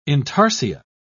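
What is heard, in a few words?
"intarsia", British English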